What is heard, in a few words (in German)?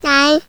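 "nein", German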